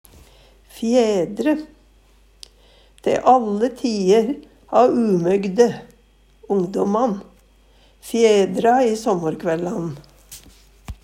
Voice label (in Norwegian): "fjedre", Numedalsmål; Te alle ti'er ha umegde (ungdomane) fjedra i såmmar-kvellan.; Verb